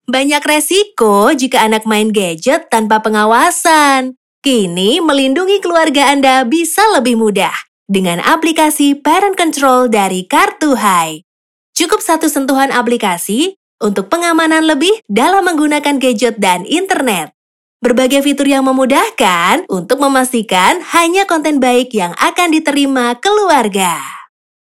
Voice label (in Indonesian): CASUAL INDONESIA